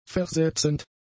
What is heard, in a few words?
"versetzend", German; adjectives